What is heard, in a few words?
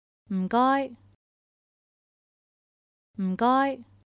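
Thank you, Chinese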